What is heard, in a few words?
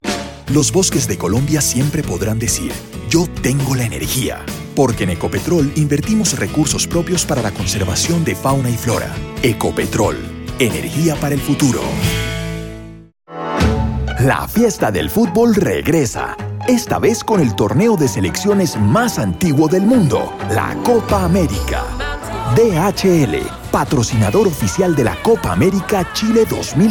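male, Colombian Spanish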